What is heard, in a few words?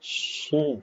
short voyels arabic alphabet